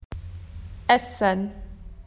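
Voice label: "food", Australian English; PictureDictionary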